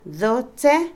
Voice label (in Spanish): hielo, Otomí, mujer; Vocabulary; INALI